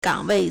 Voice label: "gang3 wei4", Chinese